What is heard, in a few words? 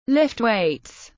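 "Lift weights", English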